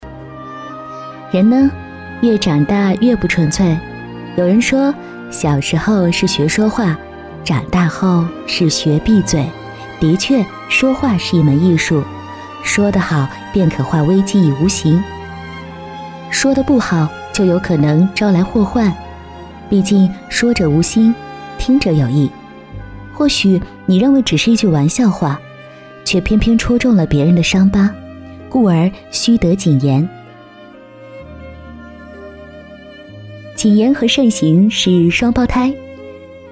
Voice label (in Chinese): 女, 电台, 情感